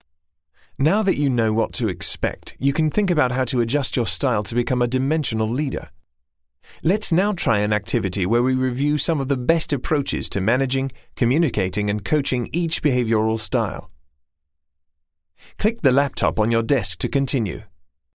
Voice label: man british